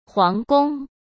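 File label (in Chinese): "皇宫", Mandarin Chinese; chinese-flashcards; hsk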